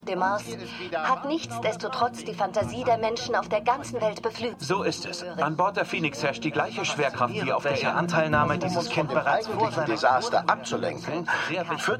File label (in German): TV Sprecher; TVSprecherHG ExNASAAstronaut; For All Mankind